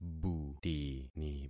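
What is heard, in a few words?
"butini", concatenated syllables; Affixing experiment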